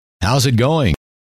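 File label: how's it going, male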